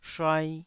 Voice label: "shuai2", taiwanese